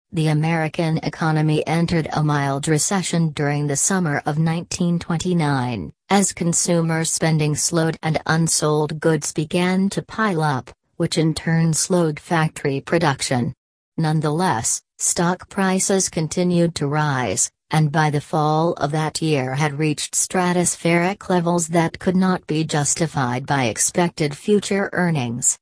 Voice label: read aloud